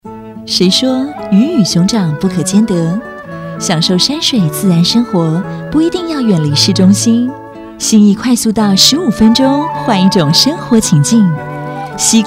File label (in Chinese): 質感知性 房產廣告